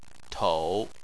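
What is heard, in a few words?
"tou", Chinese, third tone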